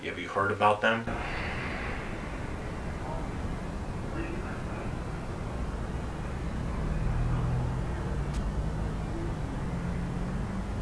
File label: Female; evp